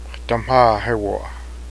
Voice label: old mare, cheyenne language